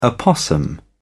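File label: "opossum", British English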